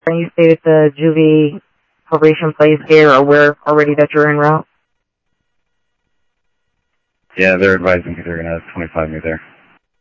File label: Info Sheriff Common, scanner audio trunk; MCSO - Info Sheriff - Common; ThompsonPeakScot